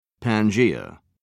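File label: "pangaea", British English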